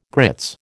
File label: "grits", American